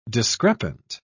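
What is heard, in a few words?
"discrepant", British English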